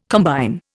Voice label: "combine", Indian